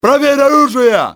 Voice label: check your fire, russian soldier; XBOX